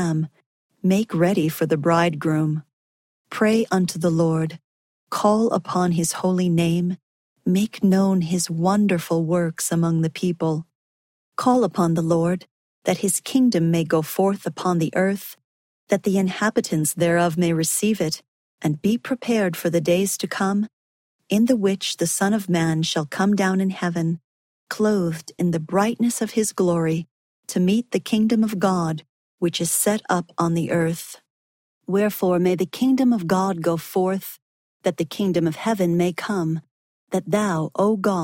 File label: section female voice eng